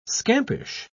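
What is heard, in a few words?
"scampish", British English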